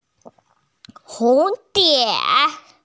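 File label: "hu2die2" Mandarin Chinese, female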